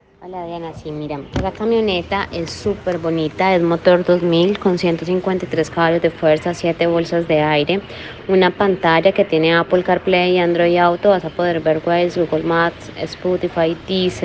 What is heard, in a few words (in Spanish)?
CONVERSACION WASAP ASESOR AUDIO